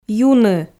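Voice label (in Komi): "юны" Komi, female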